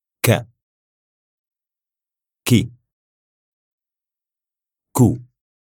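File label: "ka ki ku", Arabic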